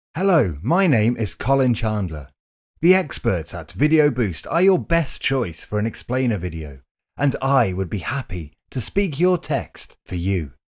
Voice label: British English, voiceover; internationale voiceover